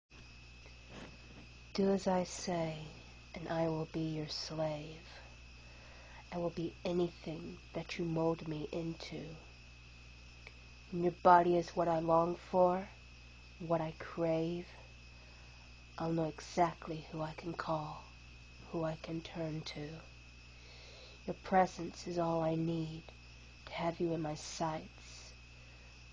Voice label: F, erotic poetry; Do as I Say...and I Will Be Your Slave